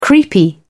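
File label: "creepy", British English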